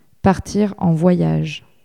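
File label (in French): "partir en voyage" French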